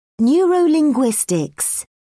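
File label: "neurolinguistics", British English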